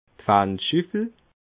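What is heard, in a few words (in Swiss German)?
"malzschuffel", Alsatian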